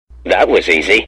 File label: that was easy button sound; smosh